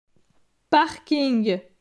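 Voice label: "Parking", French; phonetique; les consonnes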